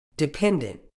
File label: "dependent", uk